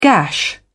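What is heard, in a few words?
"gash", British English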